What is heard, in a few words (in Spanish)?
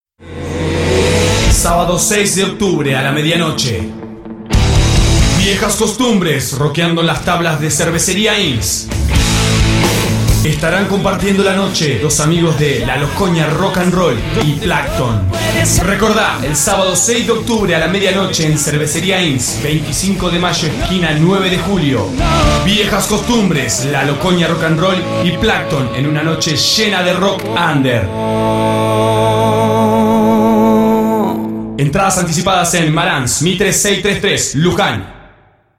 Publicidad; Viejas Costumbres 6 de Octubre